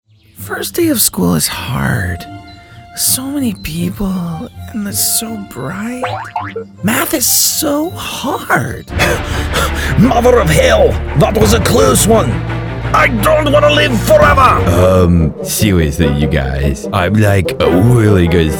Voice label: Animation